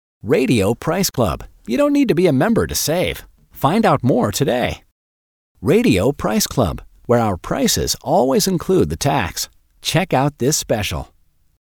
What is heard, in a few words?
radio; price club